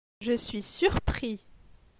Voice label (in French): "je suis surpris", francais